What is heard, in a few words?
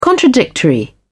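"contradictory", British English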